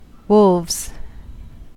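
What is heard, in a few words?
"wolves", American English; pronunciations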